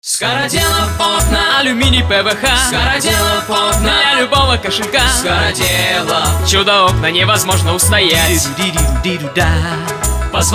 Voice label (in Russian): Радиоролик